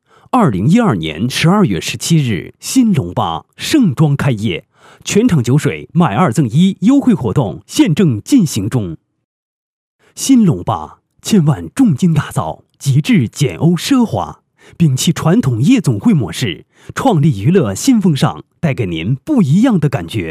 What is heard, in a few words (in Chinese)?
男 广告, 国语男声配音 解说; 便宜解说; 龙吧